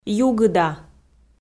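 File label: "югыда", Komi, female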